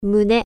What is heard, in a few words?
"chest", Japanese